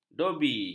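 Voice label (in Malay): "dobi", Malay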